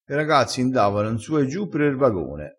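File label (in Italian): "n su e giu", dialettale crespinese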